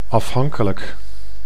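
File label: "afhankelijk", Dutch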